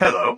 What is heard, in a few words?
droid voice hello